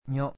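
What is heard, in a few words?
"nyo", Japanese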